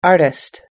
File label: "artist", us english